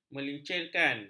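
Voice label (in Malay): "melincirkan", Malay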